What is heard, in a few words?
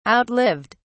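"outlived", British English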